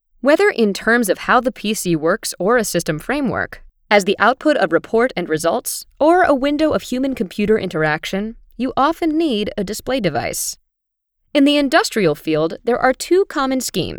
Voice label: 外籍女 产品讲解

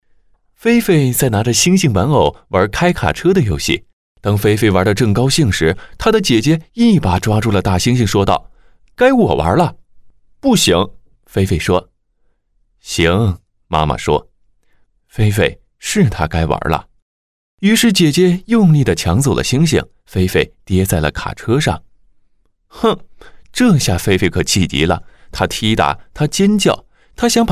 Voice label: 男; 菲菲生气了

